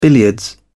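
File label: "billiards", English, uk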